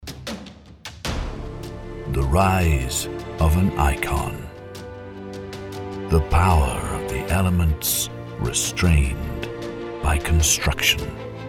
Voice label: commercial, British; voice